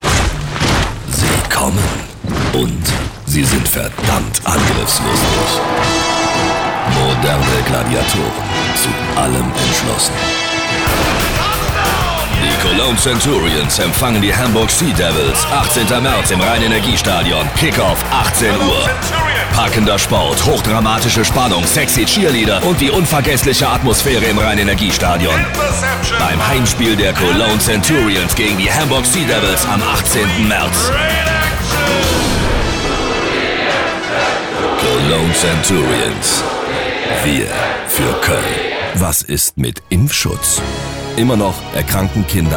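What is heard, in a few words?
male, German, commercial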